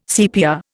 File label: "CPR", Indian